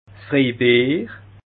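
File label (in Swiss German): "freibier", Bas Rhin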